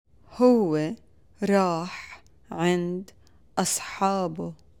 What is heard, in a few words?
Past tense Arabic